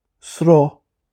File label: "Sruth", Irish